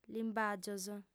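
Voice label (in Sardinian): "limbàgios", Sardinian, female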